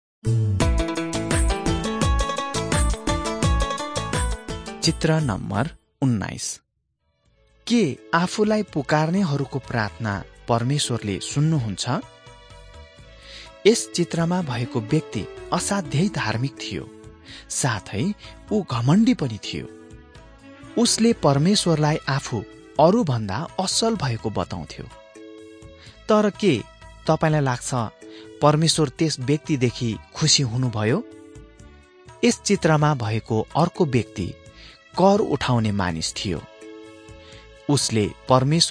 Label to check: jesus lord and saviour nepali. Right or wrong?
Right